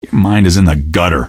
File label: answer, male; Garry's Mod; hl2